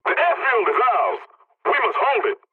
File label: pilot capture ground ally, english; War Thunder